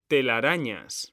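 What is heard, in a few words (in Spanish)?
"telarañas", Spanish; mekanta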